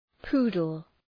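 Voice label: "poodle", English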